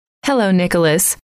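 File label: "hello", female; trainer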